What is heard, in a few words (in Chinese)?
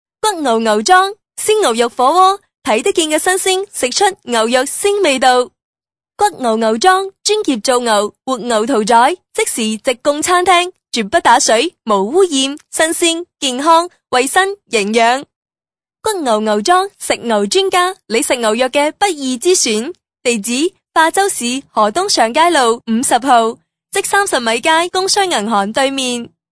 女声 粤语 广告